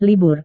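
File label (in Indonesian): "libur", Indonesian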